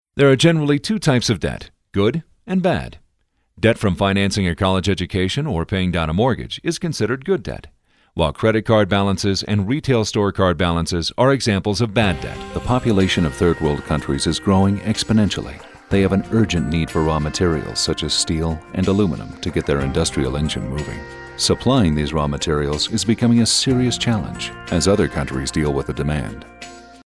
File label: Informative